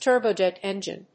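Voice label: "turbojet engine", English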